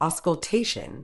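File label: "auscultation", British English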